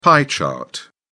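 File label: "pie chart", British English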